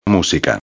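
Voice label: "musica", Spanish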